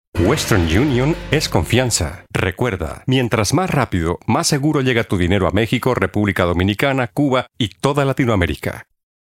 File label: Warm; Western Union